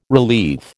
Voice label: "relief", American